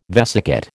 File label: "vesicate", American